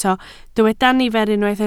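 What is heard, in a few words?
"nifer" Welsh, spoken word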